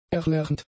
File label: "erlernt", German; adjectives